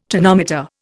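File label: "tonsil", Indian; Dictionary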